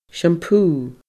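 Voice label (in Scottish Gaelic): "seampu", Scottish Gaelic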